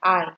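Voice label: "I", Nigerian Pidgin, female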